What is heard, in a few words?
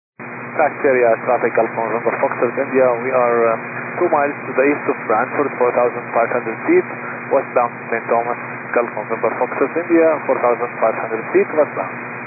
122 925MHz, scanner radio